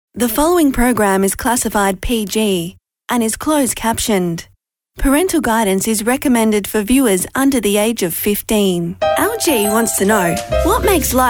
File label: Voice Over